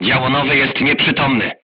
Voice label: voice message gunlayer contused, crew tanks polish